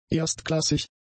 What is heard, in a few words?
"erstklassig", German; adjectives